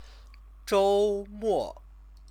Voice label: "zhoumo", Chinese; Chinese lessons

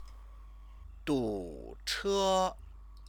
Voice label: "duche", Chinese; 堵 车; traffic jam; verb-object; Chinese lessons

